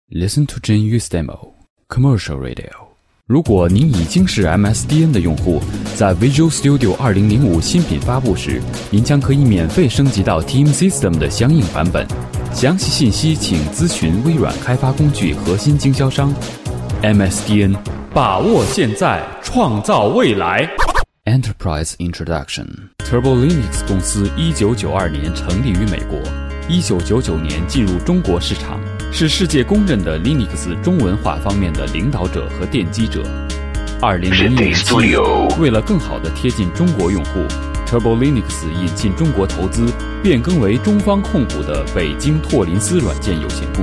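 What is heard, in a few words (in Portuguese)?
Chinês Masculino Mandarim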